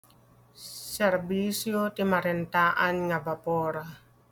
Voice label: Ilocano